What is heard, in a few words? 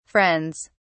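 "friends", British English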